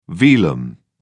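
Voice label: "velum", British English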